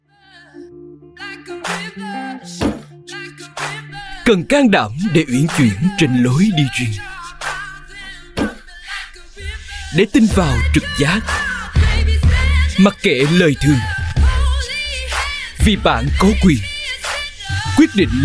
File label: South COMMERCIAL Male, South Vietnamese; REJOICE